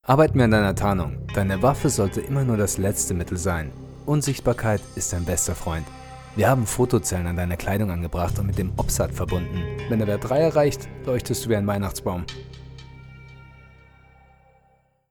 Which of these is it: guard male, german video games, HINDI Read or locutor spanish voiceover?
german video games